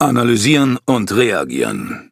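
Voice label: defeat, German; cookie run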